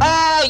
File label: "oh" male; ooh ahh